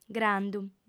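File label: "grandu", Sardinian, female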